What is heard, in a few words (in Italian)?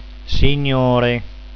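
"signore", Italian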